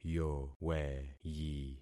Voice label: "yoweyi", concat syllables; Affixing experiment